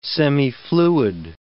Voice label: "semifluid", English